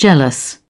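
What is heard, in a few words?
"jealous", British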